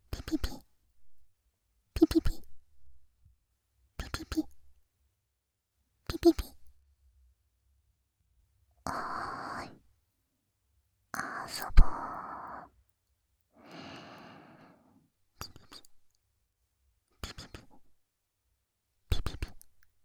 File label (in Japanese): 思春期女子からの目覚まし音声; キノコ通常版